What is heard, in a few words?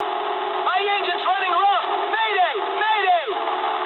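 damaged engine mood low, speech English; War Thunder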